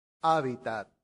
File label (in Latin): "habitat", latin; santillana